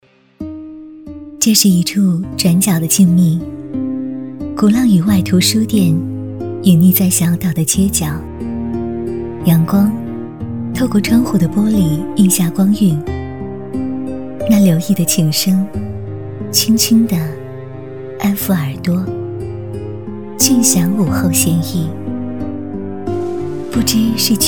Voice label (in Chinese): 微电影旁白独白; 鼓浪屿